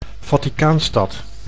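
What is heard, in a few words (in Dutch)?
"Vaticaanstad", Dutch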